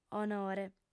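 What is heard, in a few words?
"onore" Sardinian, female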